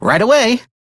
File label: Crew Accept, Male; Warframe; Railjack; Perrin Sequence